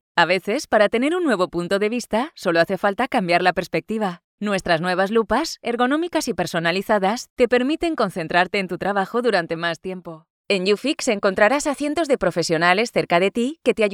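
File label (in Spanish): generico España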